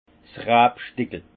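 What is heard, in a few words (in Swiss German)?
"rabstickel", alsace Haut-Rhin; vin; lopin de vigne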